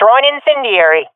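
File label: throwing fire, swat female; vo; Counter Strike Model Pack; CS:GO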